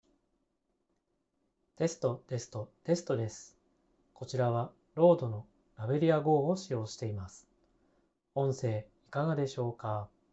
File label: Lavalier high